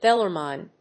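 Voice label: "bellarmine", English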